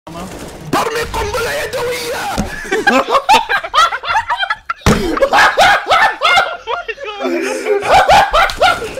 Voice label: throwing hand granade in arabic